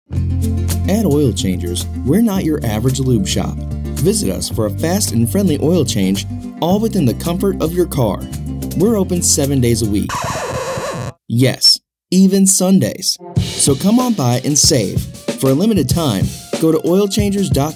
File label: Ad; Oil Changers